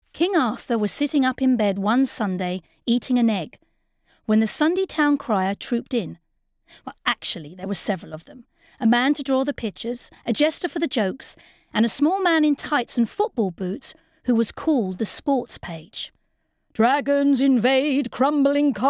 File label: story